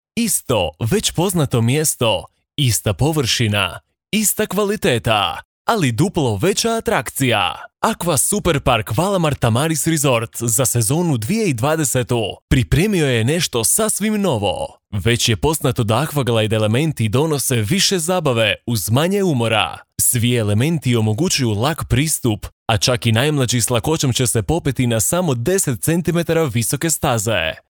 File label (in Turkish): Bosnakca Erkek, seslendirme